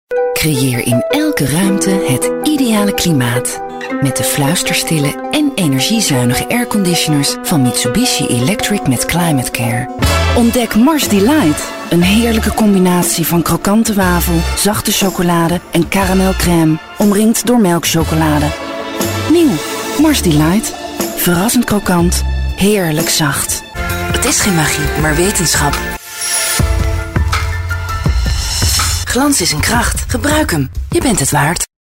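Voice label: nl warm, Dutch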